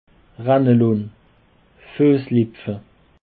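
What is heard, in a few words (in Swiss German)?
"rennelon", Alsatian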